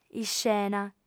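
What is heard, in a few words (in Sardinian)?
"iscena", female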